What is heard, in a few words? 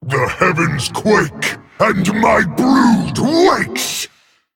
Male Dragon Play